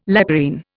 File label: "leporine", Indian